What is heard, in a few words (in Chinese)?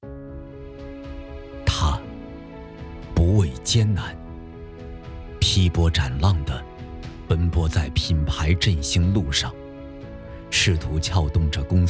男; 人物专题片; 龙头股份董事长